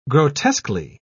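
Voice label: "grotesquely", British English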